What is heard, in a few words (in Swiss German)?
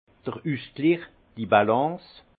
"balance", alsace; harmonie; vin